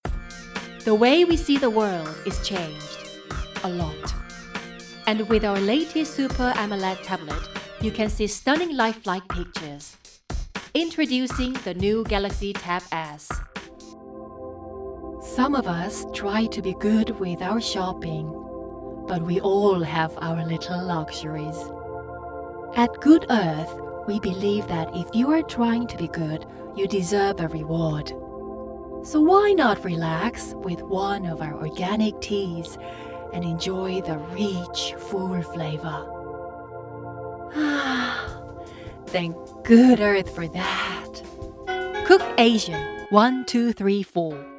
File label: Commercial